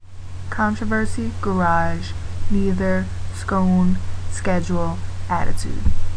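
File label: baton rouge la female, accent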